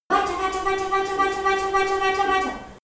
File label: birth nurse encouraging